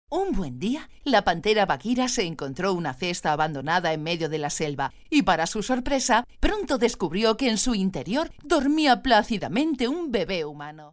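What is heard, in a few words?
Spanish, female, narration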